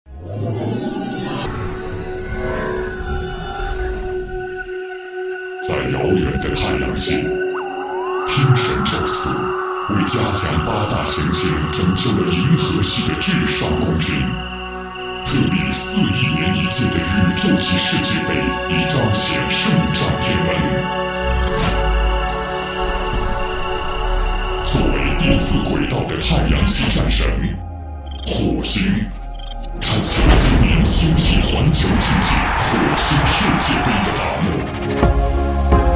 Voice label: 男, 宣传片; 火星世界杯

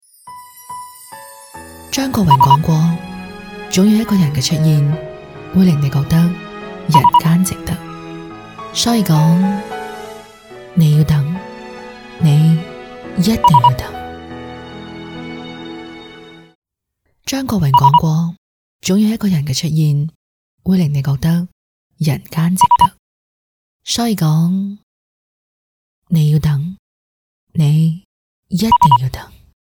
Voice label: 女 粤语 旁白独白; 一定要等

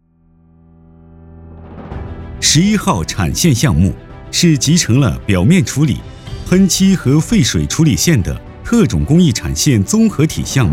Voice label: 男 专题 企业生产线介绍

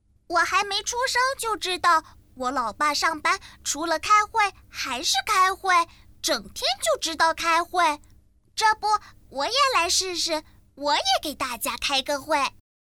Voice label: 女, 童声女声; 开会

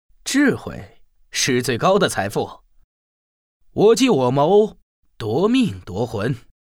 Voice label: 男 青年 睿智机敏

